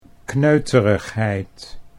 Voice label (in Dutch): "kneuterigheid", Dutch; 'Dutch small-mindedness, pettiness'